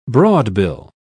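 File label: "broadbill", British English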